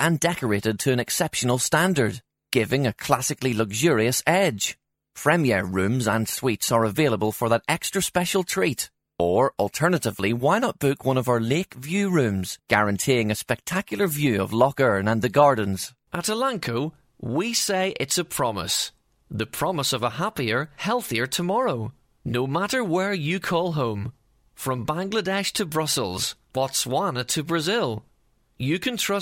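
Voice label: English Northern Irish, male, corporate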